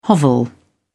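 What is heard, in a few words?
"hovel", British English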